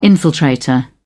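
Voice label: "infiltrator", British English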